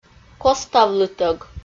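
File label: "коставлытӧг", Komi, female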